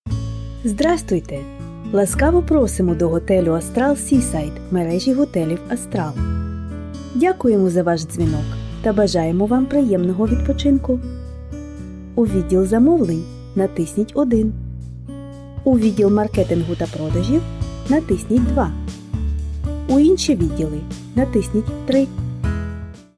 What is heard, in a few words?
On hold message in Ukrainian